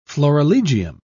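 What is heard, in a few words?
"florilegium", British English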